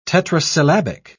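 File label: "tetrasyllabic", English, British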